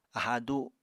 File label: "aháa du", Apatani